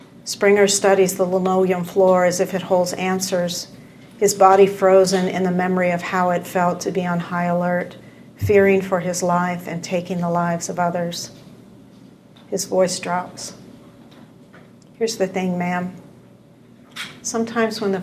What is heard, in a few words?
reading